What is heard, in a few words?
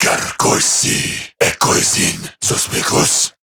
Patrol Corrupted Grineer Male